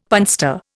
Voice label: "punster", Indian; Dictionary